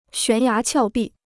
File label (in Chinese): "悬崖峭壁", Chinese